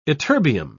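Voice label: "ytterbium", British English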